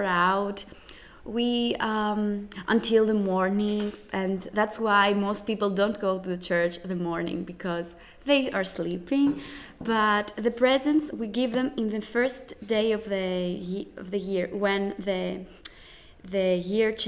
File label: Christmas greece english